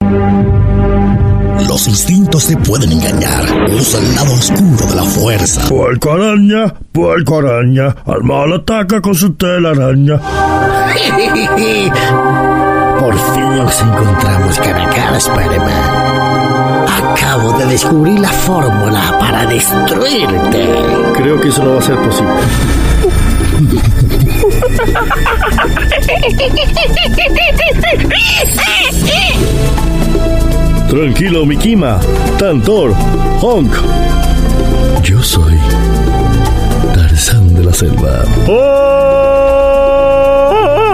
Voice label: Latin Spanish Characters